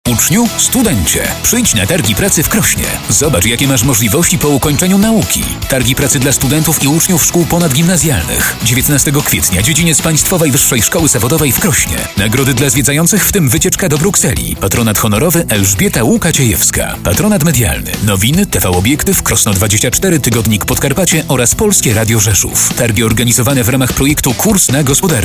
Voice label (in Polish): spot radiowy; targi pracy krosno 2012